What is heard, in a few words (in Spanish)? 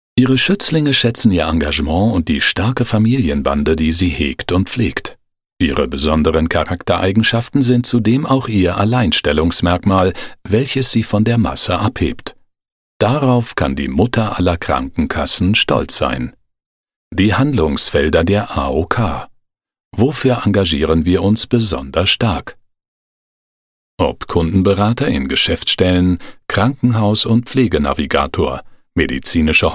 corporativo